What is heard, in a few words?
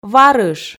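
"варыш", Komi, female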